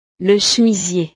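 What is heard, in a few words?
"le chemisier", French; ropa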